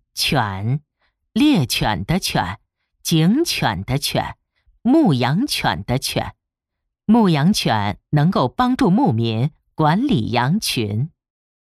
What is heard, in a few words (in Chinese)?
女 字典